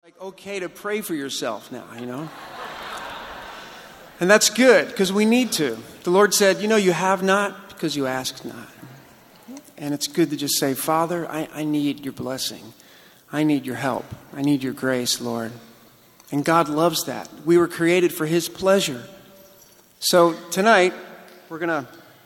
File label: monologue